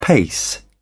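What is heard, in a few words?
"pace", British English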